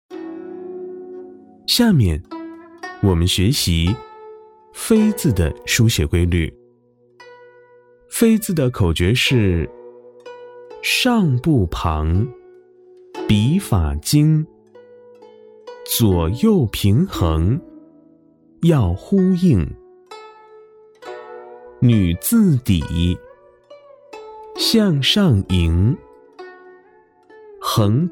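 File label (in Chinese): 男【课件】; 书法口诀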